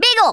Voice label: fireball, Speech Rasta